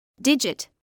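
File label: "digit" English, British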